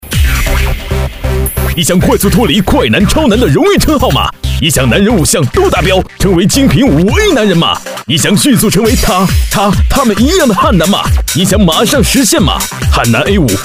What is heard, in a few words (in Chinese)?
男 直销电购, 浑厚大气; 悍男A5